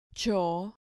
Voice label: "cho", Spanish syllable